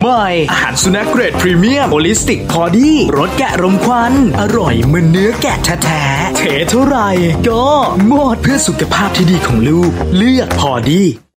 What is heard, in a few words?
Thai Male Commercials Playful